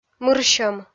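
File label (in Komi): "мырсьӧм", Komi, female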